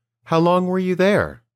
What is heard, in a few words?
Dictation